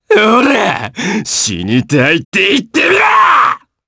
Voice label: Vox Skill jp; Ezekiel; kingsraid

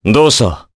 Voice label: Vox, jp; Crow; kingsraid

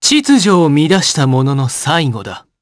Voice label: Vox Victory jp; Arch; kingsraid

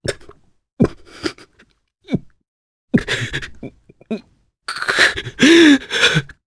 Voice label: Sad, jp; Nicx; kingsraid

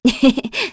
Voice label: Happy; Aselica; kingsraid

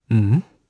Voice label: Vox Deny, jp; kingsraid; voices heroes jp

